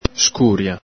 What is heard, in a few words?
"scuria", Veneto